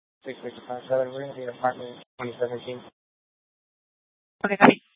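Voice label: police department dispatch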